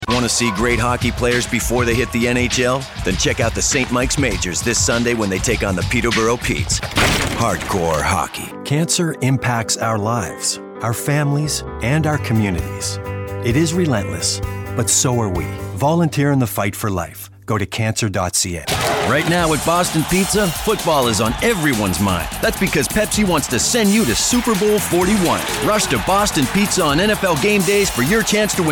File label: NATIONAL TV